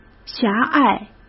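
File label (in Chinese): "狭隘", Mandarin Chinese; chinese-flashcards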